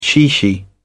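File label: "chichi", British English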